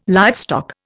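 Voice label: "livestock", Indian English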